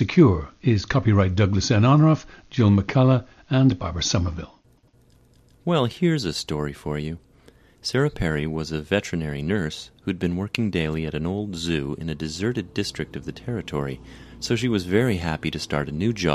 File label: General American